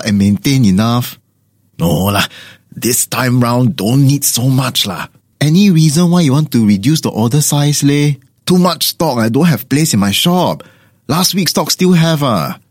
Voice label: Asian English Ah Beng boss assistant

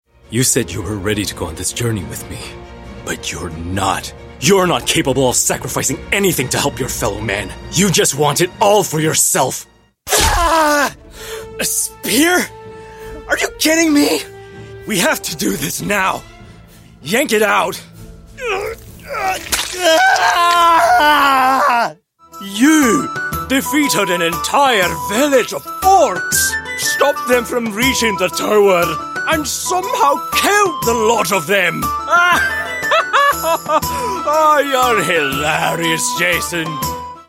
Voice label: Asian English Interactive

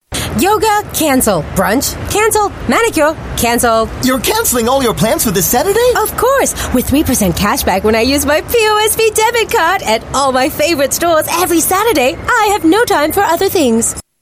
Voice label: Asian English voice over; POSB

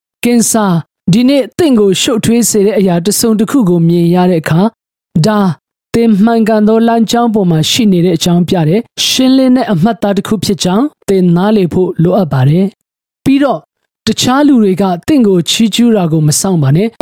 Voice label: Myanmar

